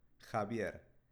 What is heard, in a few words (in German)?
"javier", spanische jungennamen